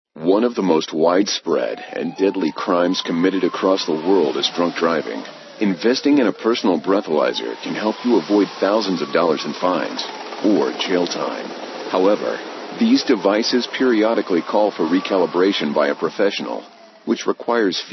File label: alcohol testing promo audio; alcomate